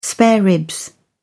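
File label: "spare ribs", British English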